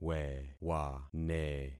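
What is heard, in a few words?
"wewane", concatenated syllables; Affixing experiment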